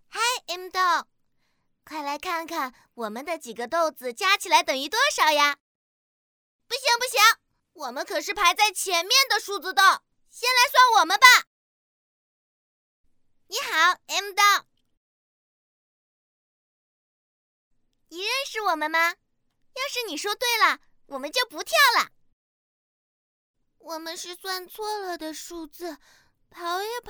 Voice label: 女童 算数

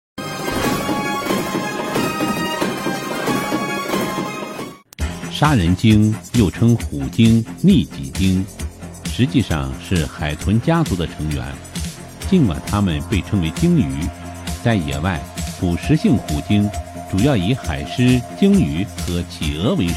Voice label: 男 杀人鲸; 爱宠记

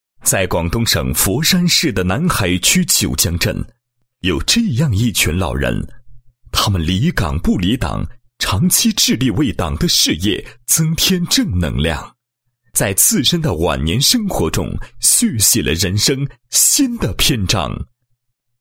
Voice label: 男-党建专题-某镇机关离退休党支部党建, 配音案例

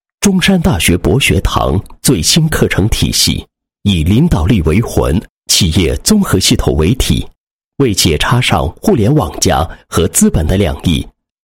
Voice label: 男-学校-最新课程体系, 配音案例; 中山大学博学堂最新课程体系

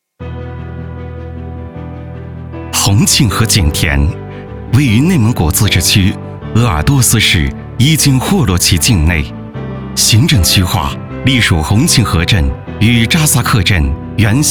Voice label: 大气优雅; 红庆河汇报

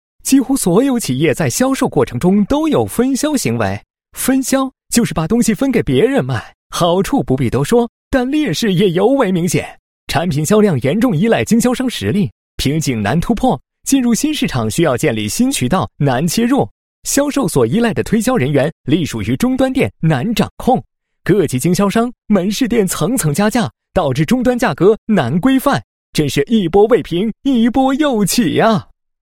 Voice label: 男 调侃, 国语男声配音 磁性; 磁性专题; 易畅SVIP

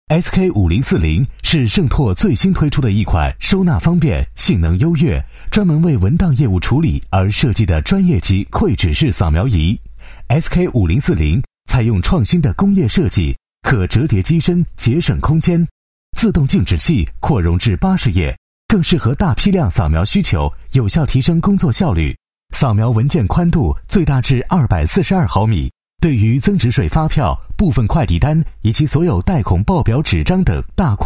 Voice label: 男 产品介绍 年轻 活力 科技, 国语男声配音; 晟拓SK5040馈纸扫描仪

